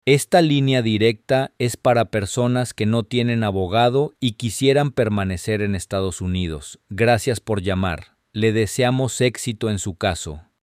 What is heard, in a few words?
Spanish, hotline